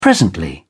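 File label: "presently", British English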